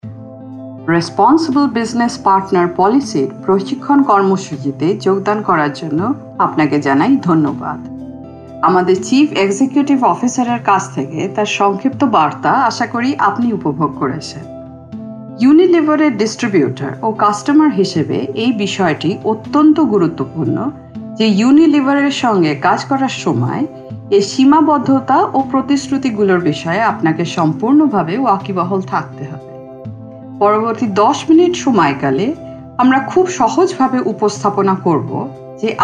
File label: Bengali Narration